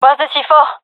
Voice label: query bomb, fem; vo; gendarmerie fem epic

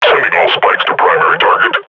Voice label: firing, combine grunt

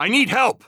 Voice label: help, heavy; vo; Counter Strike Model Pack; CS:GO; phoenix coop

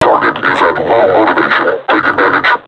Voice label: taunt, vo combine suppressor; Half Life Alyx

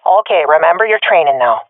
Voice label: scared, swat fem; vo; agents; Source Model Packs; Counter Strike Model Pack; CS2

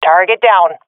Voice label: i killed enemy, swat fem; vo; agents; Source Model Packs; Counter Strike Model Pack; CS2

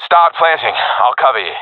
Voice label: friend plant bomb, professional epic; vo; Counter Strike Model Pack; CS:GO

